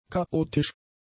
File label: "chaotisch", German; adjectives